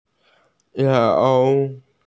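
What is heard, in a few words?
"cao3", Mandarin Chinese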